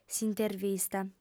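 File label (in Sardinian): "s'intervista", female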